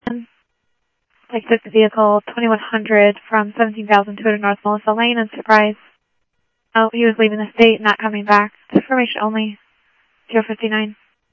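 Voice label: Phoenix police department, scanner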